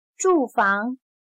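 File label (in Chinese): "住房" Mandarin Chinese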